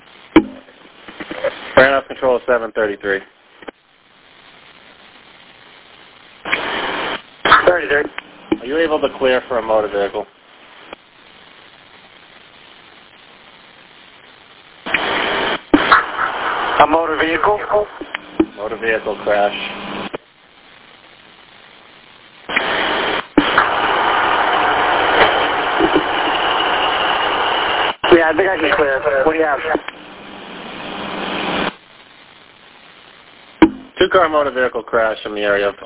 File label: Police Radio